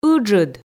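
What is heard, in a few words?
"ыджыд", Komi, female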